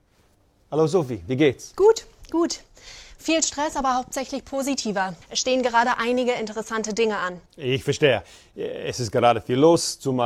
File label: Management Fundamentals in German